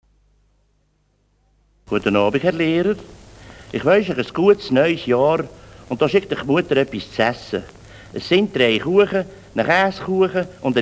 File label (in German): Luzern LU